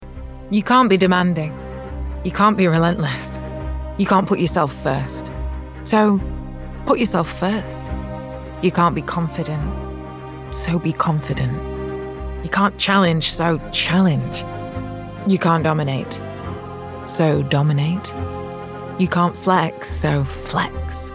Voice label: Commercial; Nike